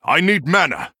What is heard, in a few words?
Male Human ERROR NEED MANA